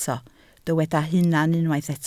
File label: "hunan", Welsh